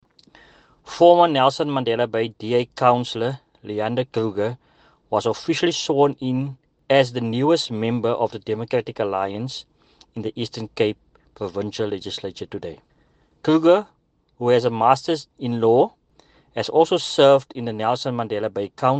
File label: English; sworn in as newest DA member of Eastern Cape Legislature